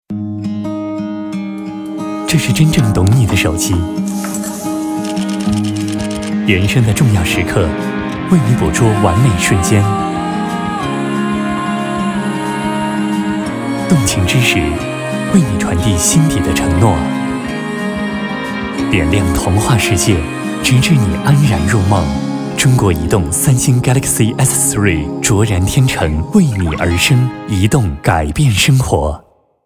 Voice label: 男 广告 亲和 磁性; 三星广告

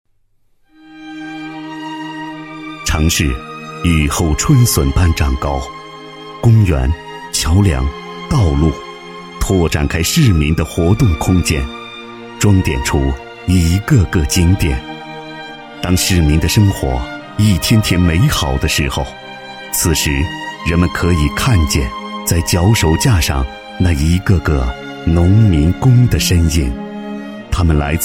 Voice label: 男 专题 深情磁性, 国语男声配音, 浑厚; 农民工的兄弟

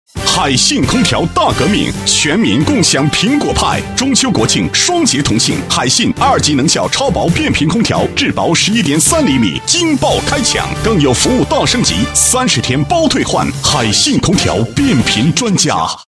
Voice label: 男 品牌广告, 国语男声配音; 海信

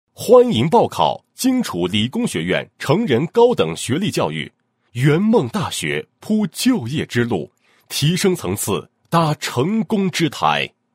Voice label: 男, 宣传广告; 欢迎报考荆楚理工学院成人高等学历教育

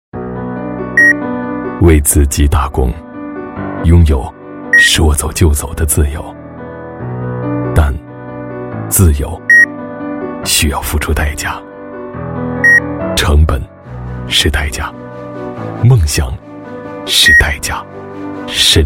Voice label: 男 诗歌 为自己打工 央视品质的, 国语男声配音

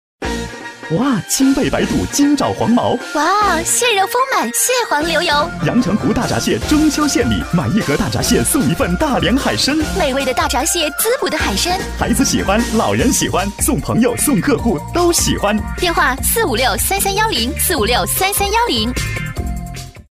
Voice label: 男 阳光欢快促销大闸蟹

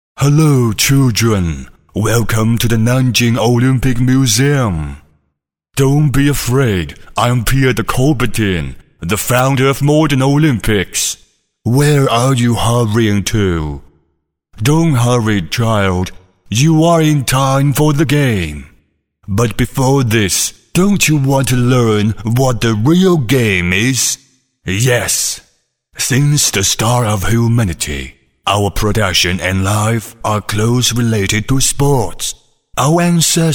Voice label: 英男, 角色, 老仙人; 顾拜旦

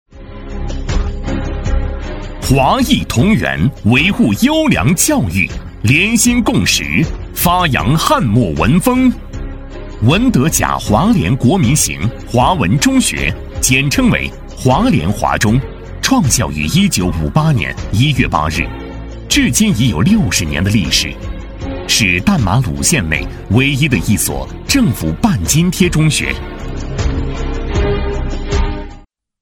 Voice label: 男 学校 中学; 华裔同源中学

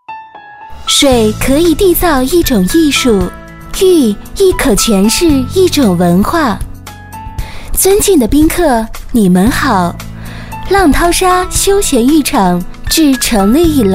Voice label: 女 广告 甜美温馨 洗浴, 国语女声配音

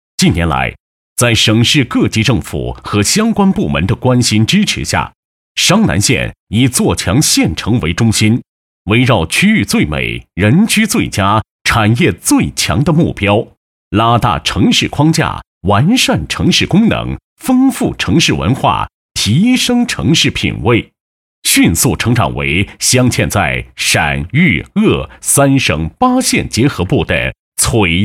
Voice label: 男 专题干音; 央视大气专题; 鹿城之春

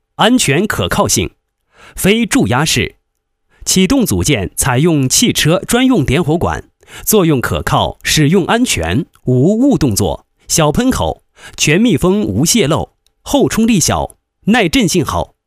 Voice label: 男, 解说; 国语男声配音; 便宜解说; 西安大隆

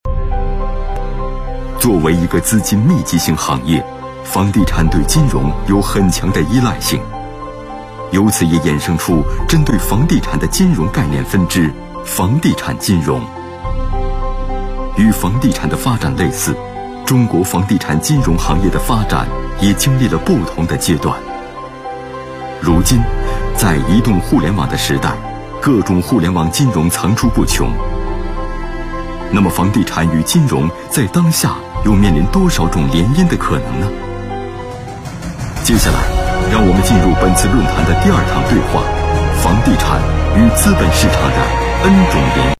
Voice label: 男 专题 房地产与资本市场论坛开场

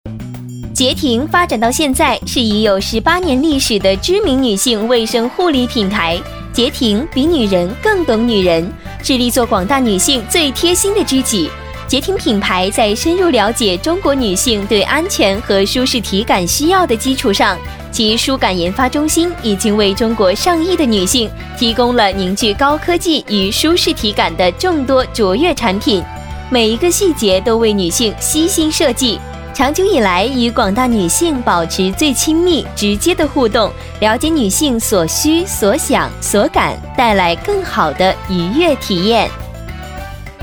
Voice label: 女 专题 活泼甜美, 国语女声配音, 年轻温柔

